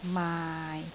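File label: "maai6", Cantonese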